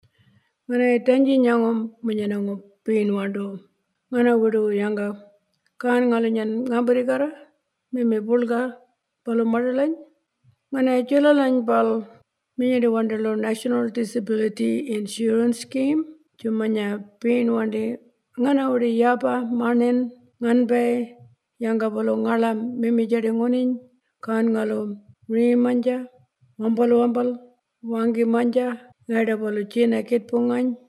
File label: Walmajarri